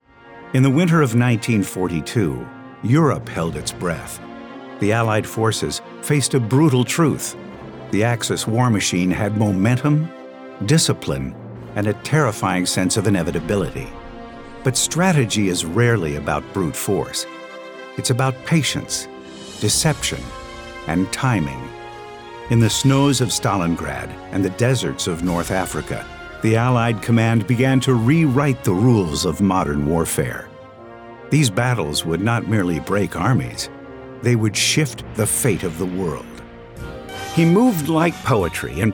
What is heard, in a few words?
TV Narration